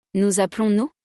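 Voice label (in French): "nous appelons nous", French; conjugaison; le verbe s'appeler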